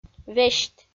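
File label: "весьт", Komi, female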